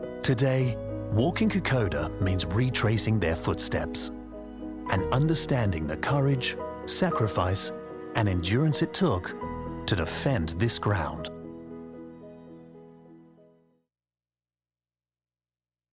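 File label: NARRATION, Authentic Australian Voiceover; KOKODA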